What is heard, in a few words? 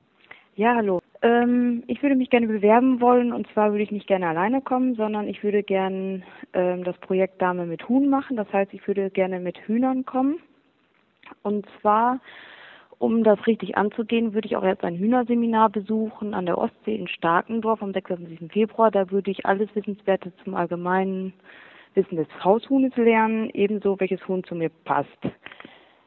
Voicemail